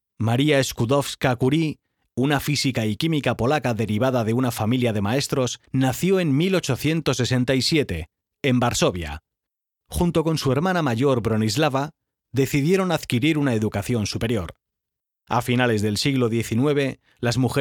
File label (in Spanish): Audiogu a museo